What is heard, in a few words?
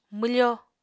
"mwfo", Apatani